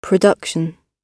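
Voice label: "production", British English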